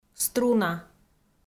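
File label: "струна", Komi-Zyrian, female; audio dict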